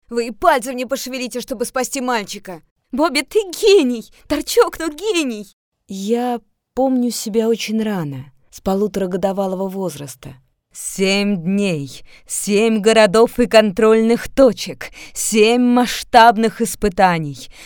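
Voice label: characters, Russian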